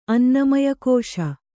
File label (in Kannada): "ಅನ್ನಮಯಕೋಶ", Kannada